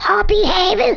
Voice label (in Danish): "Go away", Danish